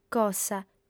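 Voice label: "cossa", female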